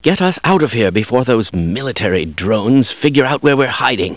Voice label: get us out, scientist